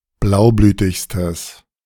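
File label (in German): "blaublütigstes", German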